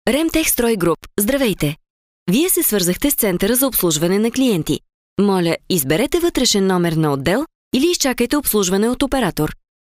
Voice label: bulgarian female telephone